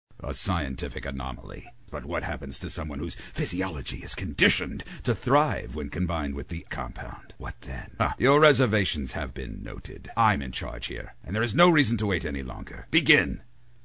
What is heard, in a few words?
Scientist-Arrogant & Brilliant; animation